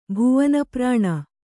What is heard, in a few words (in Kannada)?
"ಭುವನಪ್ರಾಣ", Kannada